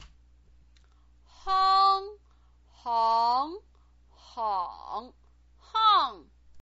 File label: "hang", Chinese pinyin